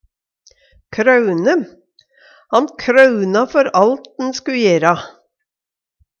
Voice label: kraune, Numedalsmål

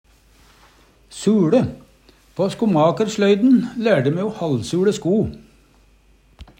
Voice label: halvsuLe, Numedalsmål

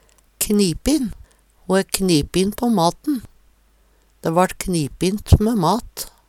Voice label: knipin, Numedalsmål; Ho æ knipin på maten. Dæ vart knipint mæ mat.; Adjektiv; Veggli

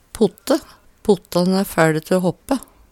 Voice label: "potte", Numedalsmål

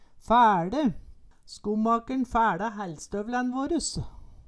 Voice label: fæLe, Numedalsmål; Skommakern fæLa hællstøvlæn våres

